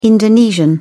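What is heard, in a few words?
"indonesian", British English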